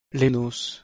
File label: "lenos", Ancient Greek